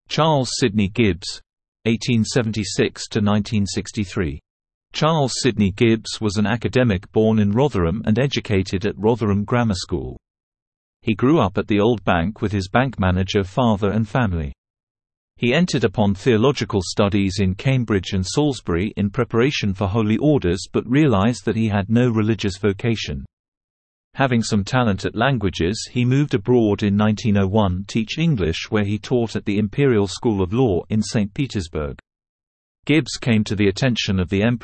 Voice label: Male; Charles Sydney Gibbes